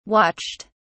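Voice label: "watched", British English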